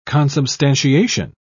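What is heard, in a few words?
"consubstantiation", British English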